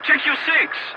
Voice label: voice message check your six, english; War Thunder

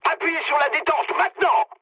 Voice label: attack position mood med, speech fr; War Thunder

